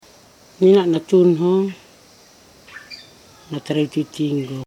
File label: I am cutting it up with a small knife (pause); matukar